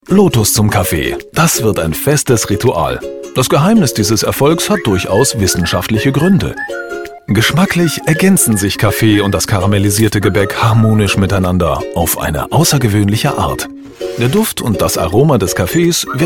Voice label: german voice over male commercial